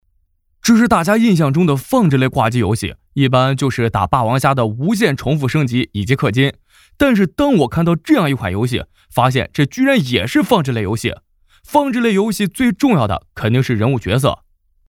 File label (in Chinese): 素人主播